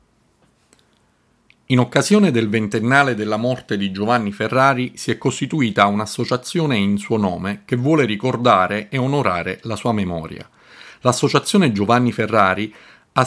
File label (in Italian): lettura; associazione giovanni ferrari